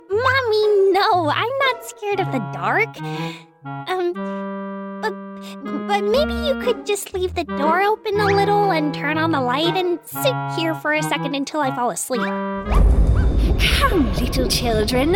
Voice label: Animation